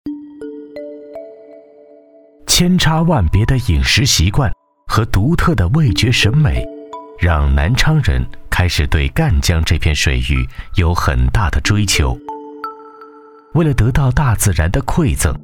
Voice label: 男 专题 舌尖风格; 炉忆家宴

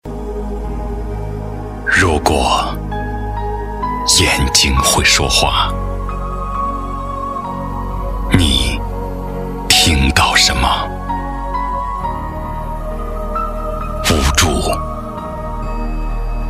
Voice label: 男 旁白 公益宣传

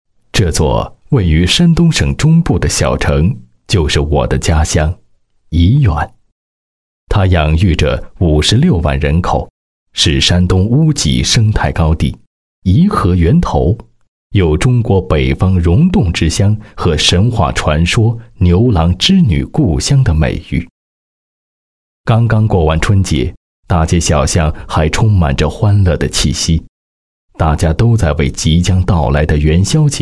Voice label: 男 专题 宣传片; 沂源宣传片

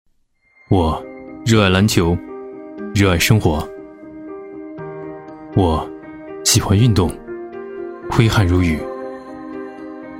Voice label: 男 旁白; 第四届业余篮球联赛

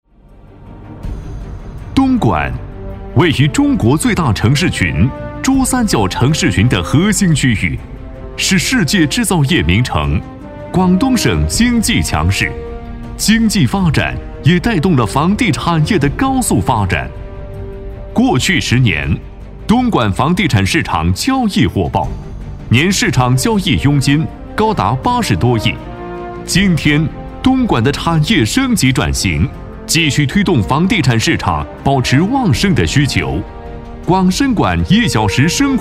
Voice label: 男 专题 城市宣传片; 东莞

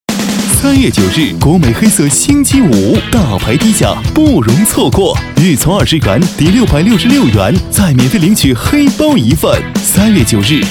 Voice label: 男, 广告, 带干音; 国美黑色星期五

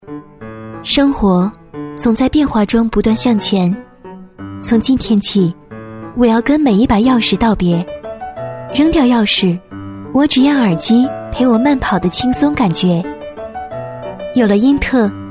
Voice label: 女-旁白--因特网（轻松自然）

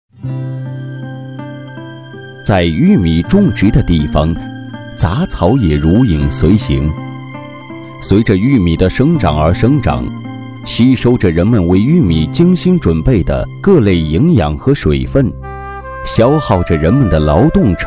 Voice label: 男 专题 产品宣传; 爱玉优产品宣传

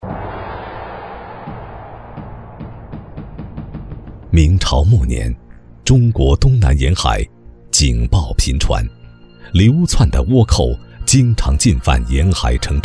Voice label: 男 纪录片 明朝末年

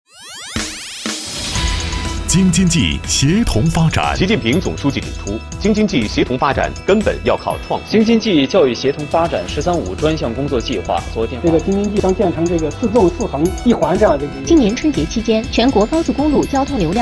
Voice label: 男58 片花

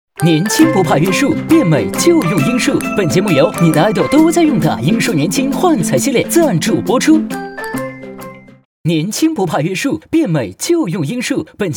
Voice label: 男43 广告 动感活力; 仿《创造101》

